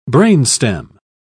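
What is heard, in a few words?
"brainstem", British English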